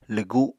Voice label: "lwgu", Apatani